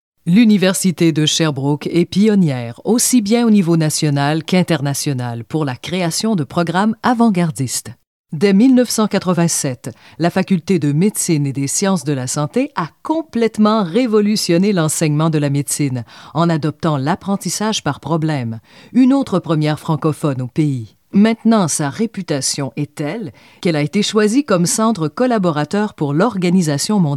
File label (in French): voix narration; Demo voix; 30s